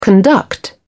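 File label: "conduct", British English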